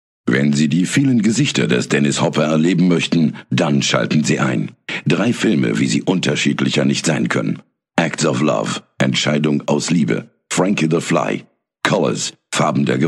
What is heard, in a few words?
man, foreign